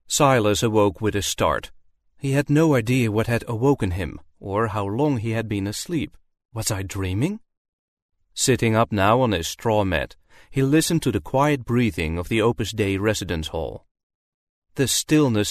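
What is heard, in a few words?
Dutch English, male, fiction, accent of the netherlands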